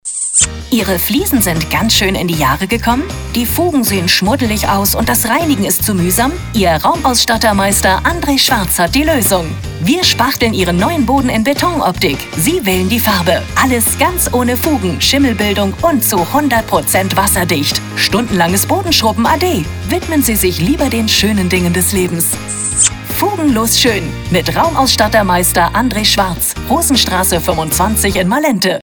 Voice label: radio spot